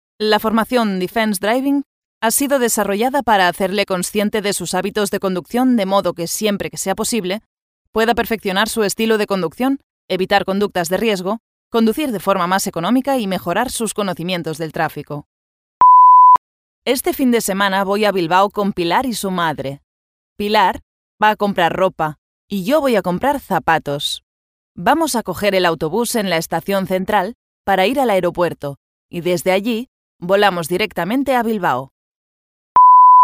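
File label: elearn spanish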